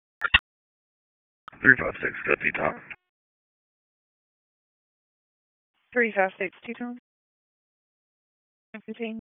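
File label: Sheriff's Office Dispatch; WSO DISP 1; Wake; p25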